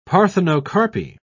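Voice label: "parthenocarpy", British English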